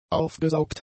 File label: "aufgesaugt", German; adjectives